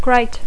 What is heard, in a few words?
"great", English